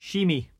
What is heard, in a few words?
"sheemie", Cromarty; [SHEEmee]; rope, straw; Word